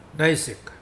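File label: "give birth", Mokilese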